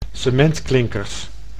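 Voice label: "cementklinkers", Dutch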